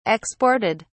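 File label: "exported", British English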